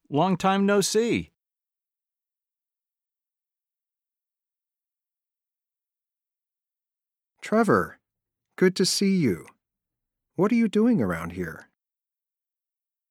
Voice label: Pronunciation Practice; Connectivity Foundation